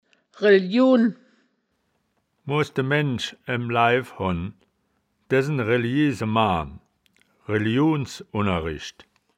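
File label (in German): "reljuhn", wittlicher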